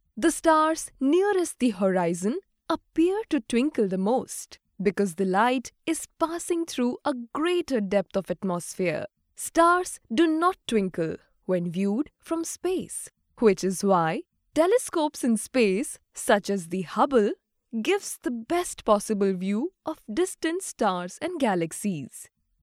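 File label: Gujarati India, female, voice over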